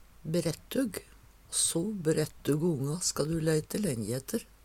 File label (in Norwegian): brettug, Numedalsmål